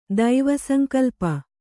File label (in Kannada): "ದೈವಸಂಕಲ್ಪ", Kannada